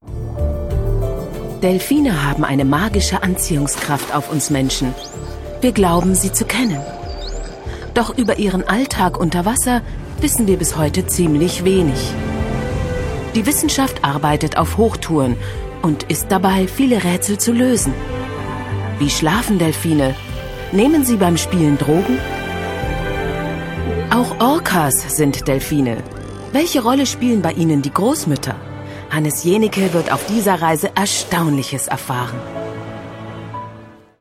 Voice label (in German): Kommentar, frauen; Einsatz Delfine